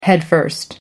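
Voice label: "headfirst", British English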